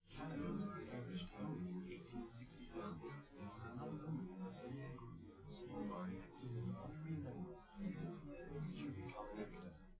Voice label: male live rec 1m sim; SASSEC07